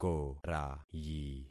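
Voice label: "korayi", concatenated syllables; Affixing experiment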